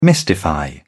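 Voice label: "mystify", British English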